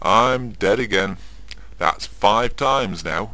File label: chat